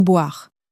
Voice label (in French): "boire", French; flashcards